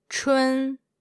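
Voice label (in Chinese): "chun", Mandarin Chinese, female; pinyin traps and pitfalls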